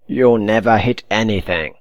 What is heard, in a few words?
taunt, voice